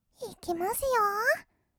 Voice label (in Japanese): 「いきますよー」, kawaii voice; 1200 kawaii voice!!; 色々なワンショット